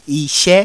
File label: "ise", Yoruba; CONSONANTS; sibi-sokoto-ise